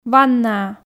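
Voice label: "ваннаа", Komi, female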